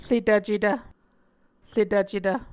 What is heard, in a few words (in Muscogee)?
"retvcetv", Muscogee